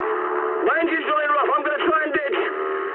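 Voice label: English damaged engine mood high; War Thunder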